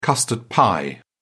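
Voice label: "custard pie", British English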